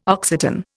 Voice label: "Occitan", Indian